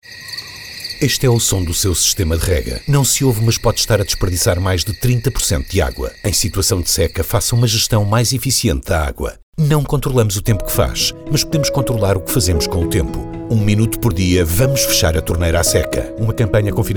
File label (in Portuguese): Spot Radio Agricultura